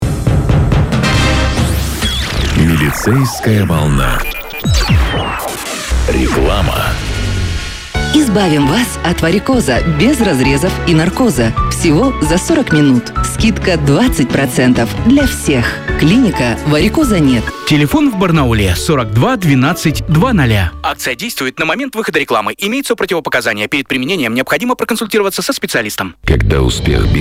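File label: Local advertising block, radio; Katun FM; Milicejskaya volna; Mestnyj reklamnyj blok 16.07.2020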